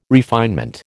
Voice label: "refinement", American